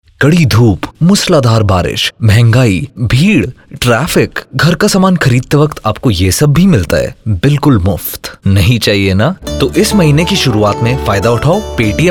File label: Hindi, male voice